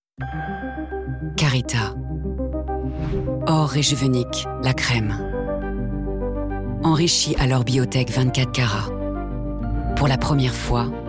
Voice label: French Commercials